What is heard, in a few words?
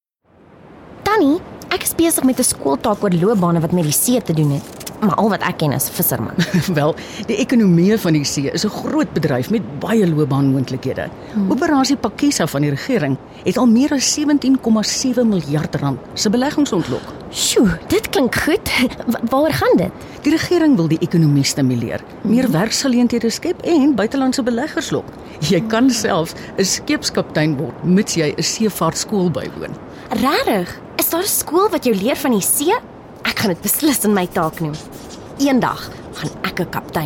oceans economy careers afrikaans